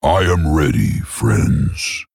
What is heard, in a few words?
Male Tauren; Hamuul; Malfurion; Mission 7 Intro